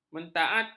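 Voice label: "mentaati", Malay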